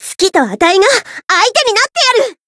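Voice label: Voice variable chant, Japanese; harle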